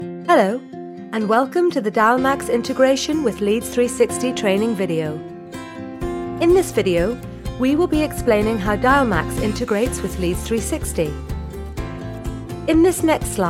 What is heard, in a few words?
corporate; home studios